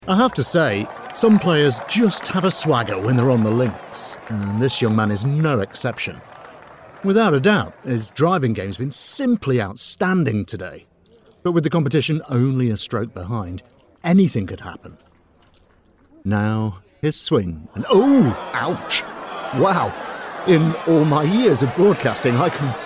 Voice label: voiceover character